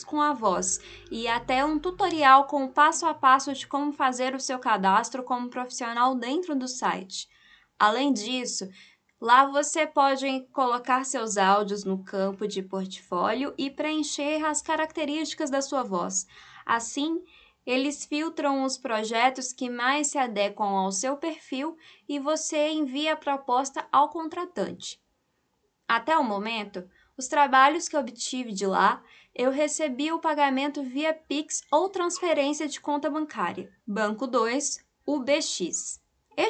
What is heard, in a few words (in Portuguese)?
bancos de voz, locutores